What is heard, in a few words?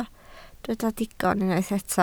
"digon", Welsh